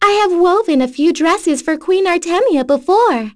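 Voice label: vox dia, voices heroes English; Yuria; kings raid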